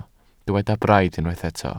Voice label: "braidd", Welsh

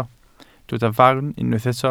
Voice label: "farn", welsh

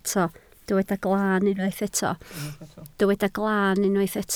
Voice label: "glan", Welsh

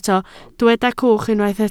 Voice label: "cwch", Welsh

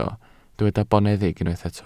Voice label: "boneddig", welsh

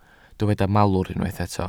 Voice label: "malwr", Welsh

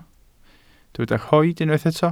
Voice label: "rhoid", welsh

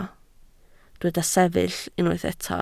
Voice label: "sefyll", Welsh

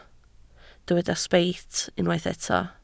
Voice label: "sbeit", Welsh

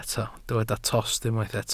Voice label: "tost", Welsh

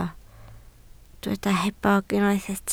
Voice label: "hebog", Welsh

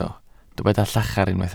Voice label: "llachar", Welsh

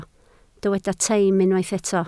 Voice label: "teim", Welsh

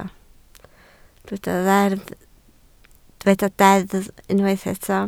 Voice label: "deddf", Welsh

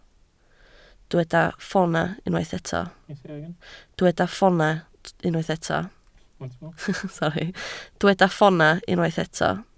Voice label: "ffoonau", Welsh

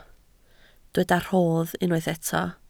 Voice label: "rhodd", Welsh

